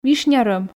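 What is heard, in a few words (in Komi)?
"вишня рӧм", Komi, female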